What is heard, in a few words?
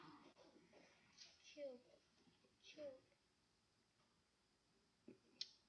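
"cube", spoken word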